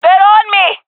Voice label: taking fire, jungle fem epic; player vo; Counter Strike Model Pack; CS:GO

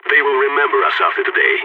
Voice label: radio lock n load, balkan

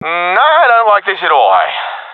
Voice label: scared; Counter Strike Model Pack; CS:GO

